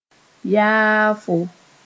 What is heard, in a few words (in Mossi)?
"yaafo", moore; termite sp